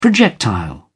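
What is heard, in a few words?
"projectile", British English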